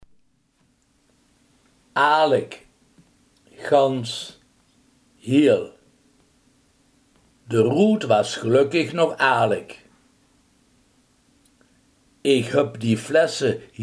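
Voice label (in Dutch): heel, Limburgse gesproken woordenboeken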